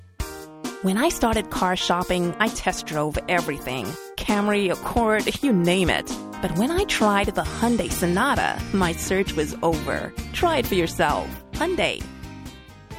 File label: Car Commercial; Hyundai